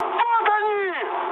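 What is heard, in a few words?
Russian enemy detected mood high, speech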